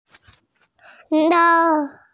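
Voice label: "yi1sheng1" Mandarin Chinese, female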